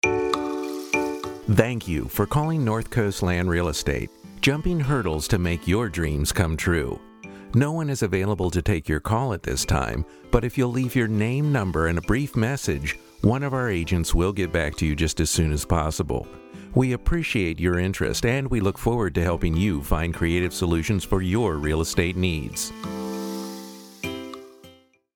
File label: Telephone Greeting